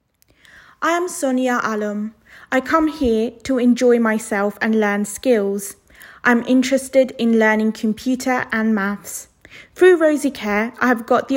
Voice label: Voiceover